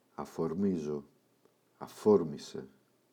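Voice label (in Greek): "αφορμίζω αφόρμισε", Greek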